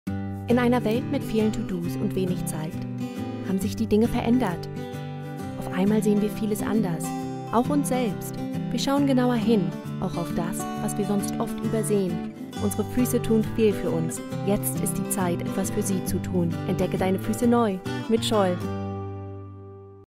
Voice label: female German commercial; voices; devine voices